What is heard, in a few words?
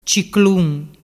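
"ciclun", Vernazzese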